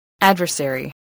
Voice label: "adversary", English voice

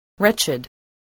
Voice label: "wretched", voice, english

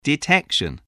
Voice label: "detection", english spelling

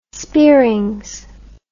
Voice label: "speerings", english

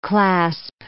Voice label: "clasp", English

